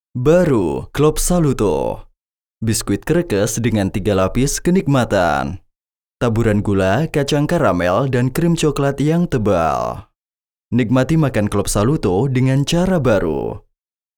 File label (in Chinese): 印尼语男 深情讲解